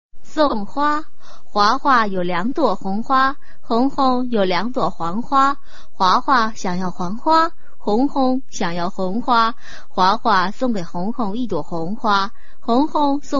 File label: chinese tongue twister